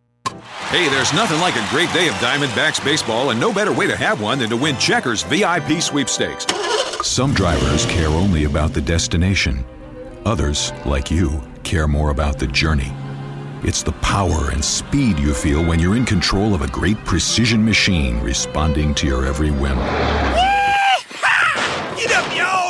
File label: Radio Spots